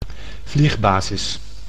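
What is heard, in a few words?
"vliegbasis", Dutch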